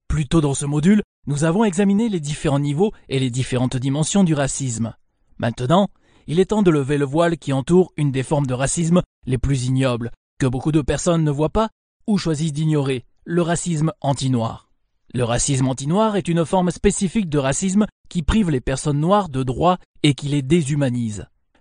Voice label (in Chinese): 法语男, 外语, 小语种